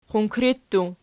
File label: "qunkri", Archi